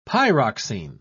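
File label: "pyroxene", British English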